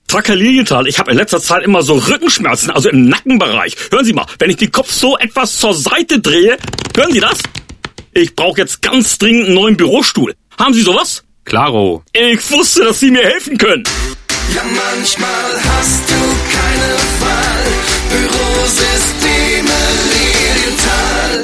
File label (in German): Spot